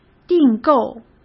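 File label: "订购", Mandarin Chinese; chinese-flashcards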